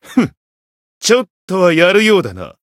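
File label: encounter fear, Japanese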